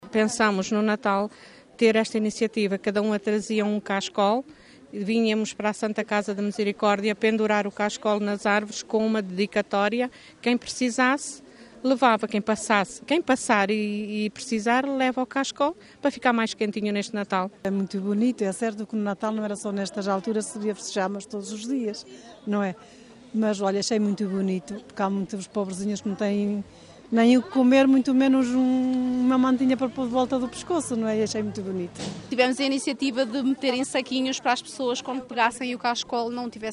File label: vox pop; cachecóis; formandos do iefp distribuem agasalhos para os mais necessitados